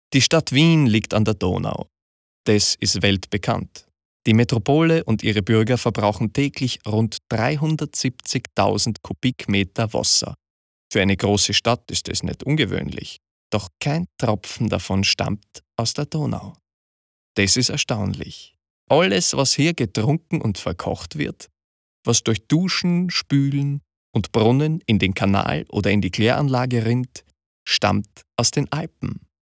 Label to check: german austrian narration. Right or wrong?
Right